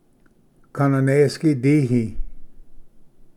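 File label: "kananesgi dihi", Cherokee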